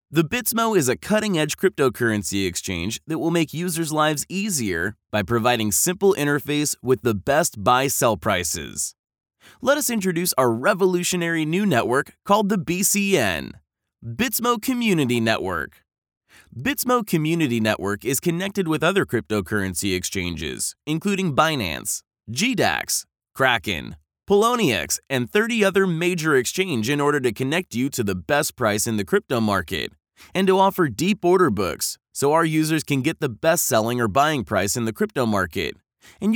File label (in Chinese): 外籍英男, 干音, 宣传片